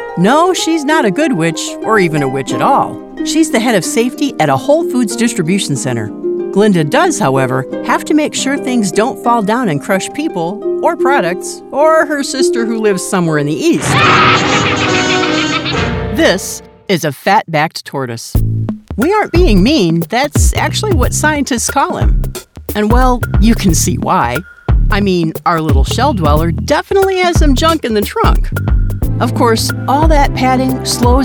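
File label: us explainer, American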